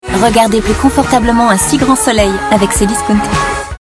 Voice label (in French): BILLBOARD FR; Cdiscount